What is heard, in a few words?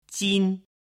"jin", Chinese